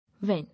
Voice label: "When", English